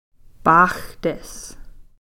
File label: "paaxtis", wolf